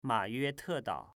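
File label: "Mayuete Dao", Chinese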